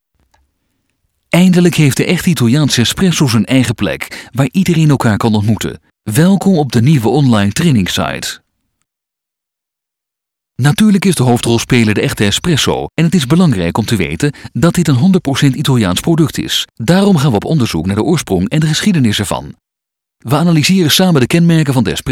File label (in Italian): olandese uomo